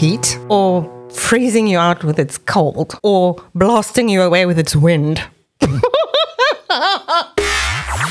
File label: Radio Cape Town Promo; WDZ Media